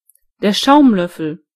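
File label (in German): "skimmer", German; gcompris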